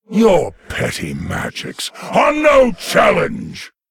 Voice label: Male Lich Icicle; en